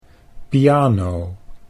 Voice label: "piano", Dutch

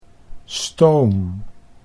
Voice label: "stoom", Dutch

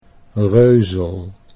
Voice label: "reuzel", Dutch

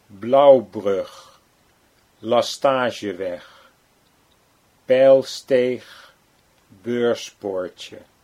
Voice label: "Blaauwbrug Lastageweg", Dutch

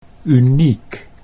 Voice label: "uniek", Dutch

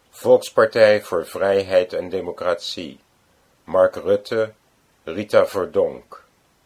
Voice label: VVD, Dutch

